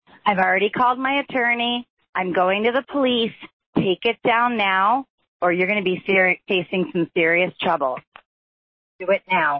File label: voicemail